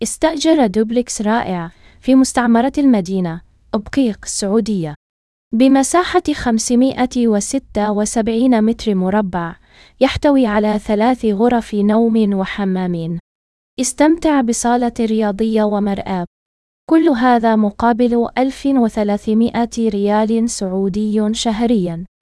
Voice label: narration audio